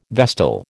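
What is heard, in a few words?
"vestal", American English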